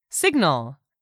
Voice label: "signal", English; 3000 words